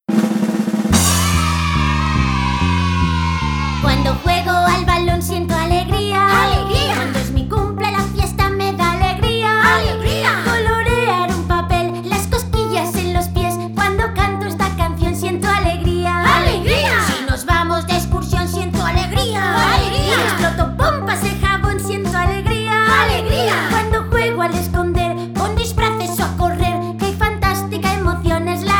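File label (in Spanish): otros España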